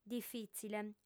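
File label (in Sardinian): "difìtzile", female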